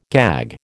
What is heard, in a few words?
"gag", American